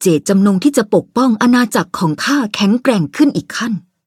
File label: level up, th; cookie run